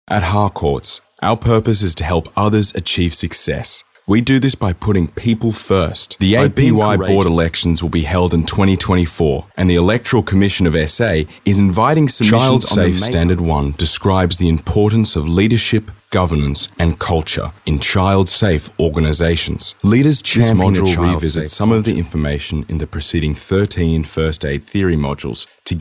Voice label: Natural